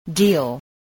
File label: "deal", English